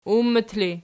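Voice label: "ummat" ergative, Archi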